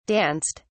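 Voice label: "danced", British English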